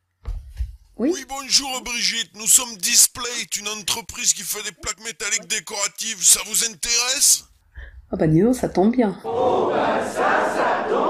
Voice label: PUB AUDIO; DISPLATE